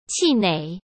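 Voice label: "气馁" Mandarin Chinese; chinese flashcards; hsk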